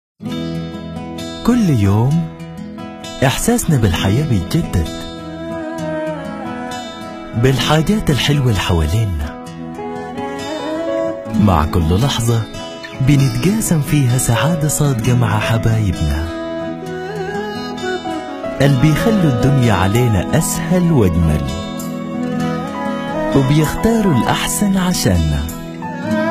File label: Sudanese